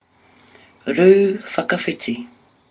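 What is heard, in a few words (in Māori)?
"ru whakawhiti", Māori word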